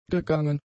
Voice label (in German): "gegangen", german; adjectives